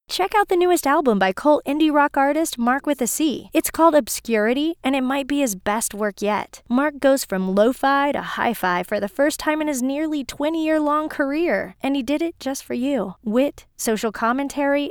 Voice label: Radio Ad